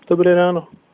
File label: good morning, slovak